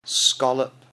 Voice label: "scallop", English; MEAT, POUTRY AND SEAFOOD